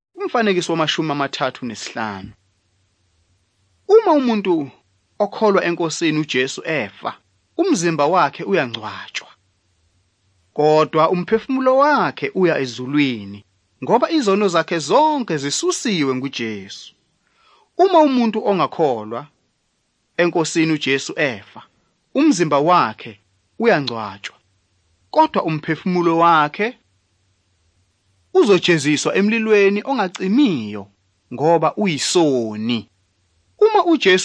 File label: zulu bible; good news